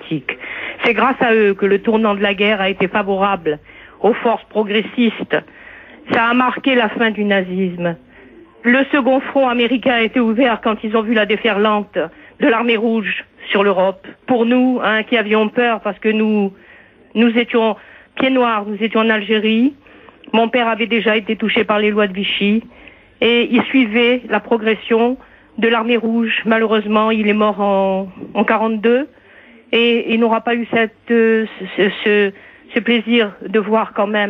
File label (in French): Stalingrad repondeur